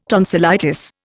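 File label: "tonsorial", Indian; Dictionary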